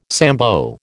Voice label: "Sambo", American